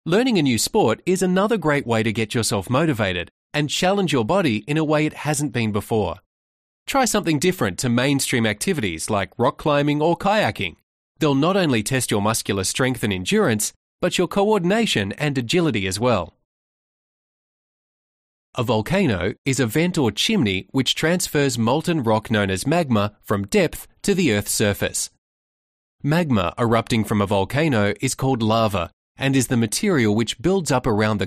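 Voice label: CORPORATE, voiceovers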